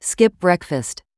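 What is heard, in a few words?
"skip breakfast", English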